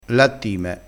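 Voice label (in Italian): "lattime", dialettale crespinese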